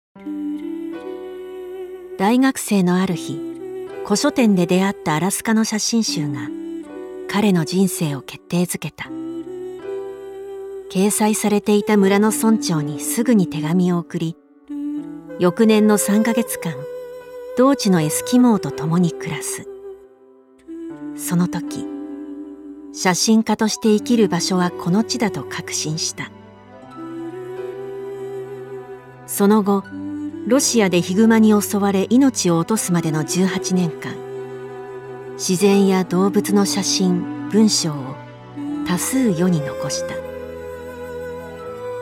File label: Real People - Natural, Conversational & Understated